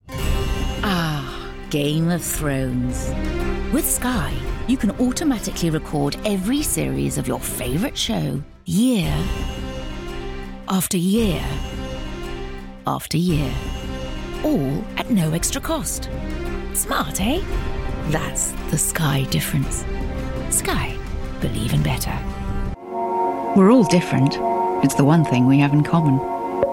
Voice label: Commercial

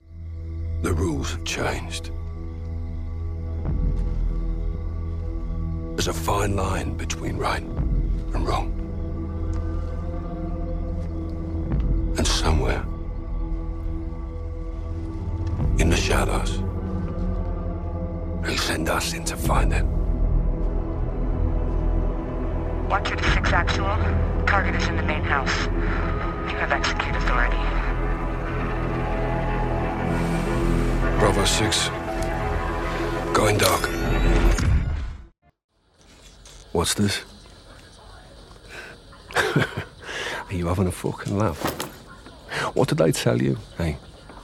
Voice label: Character

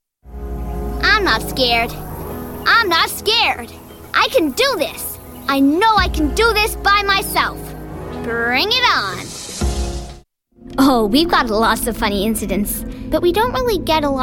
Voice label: American

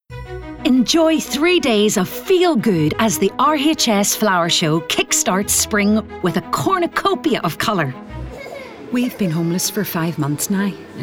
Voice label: Commercial

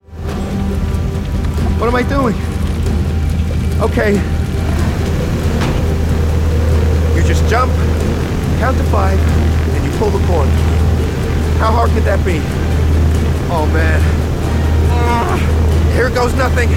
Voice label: Animation Adventurer

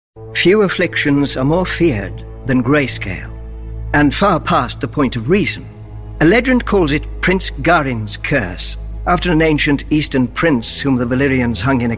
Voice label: Character

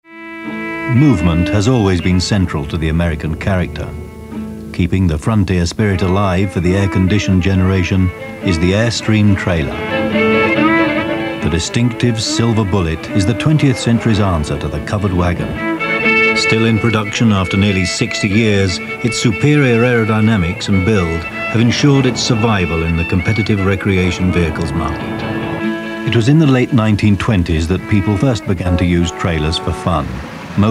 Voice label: Narration

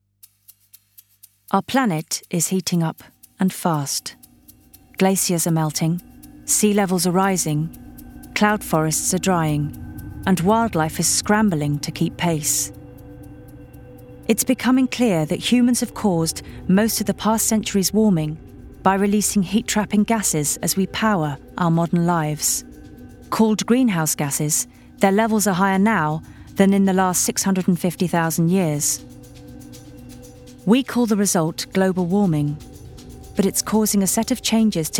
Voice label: Narration

